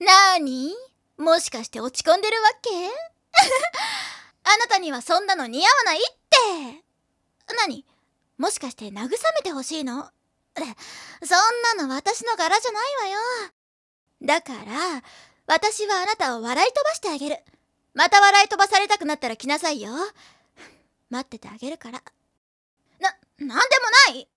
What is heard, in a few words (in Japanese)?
イメージボイス 慰めボイス; はたて; 東方素芸祭